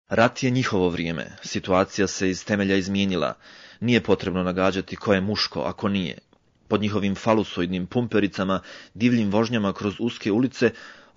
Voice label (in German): Bosnien M